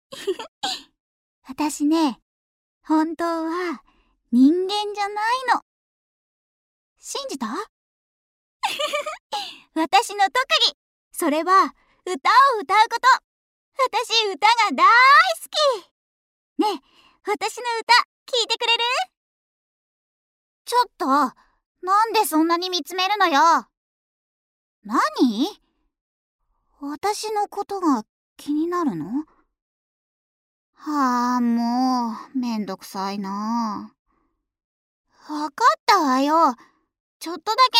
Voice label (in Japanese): 台詞 少女 ツンデレ少女 男の子 少年